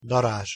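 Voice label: "darazs", Hungarian pronunciation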